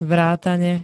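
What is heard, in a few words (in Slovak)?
"vrátane", Slovak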